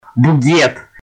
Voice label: russian guy saying baguette